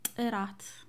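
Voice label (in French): "qualite", Amharic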